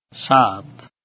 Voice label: "7", Bengali